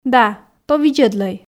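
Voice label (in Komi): да то видзӧдлӧй, Komi, female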